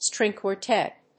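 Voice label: "string quartet", English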